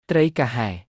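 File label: Khmer "ត្រី កាហែ"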